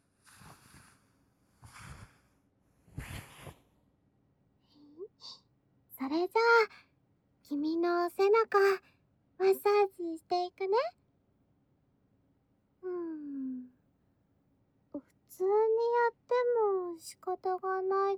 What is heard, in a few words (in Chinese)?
『小猫咪的按摩』, ASMR